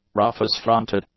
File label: "Rufous-fronted", English; nouns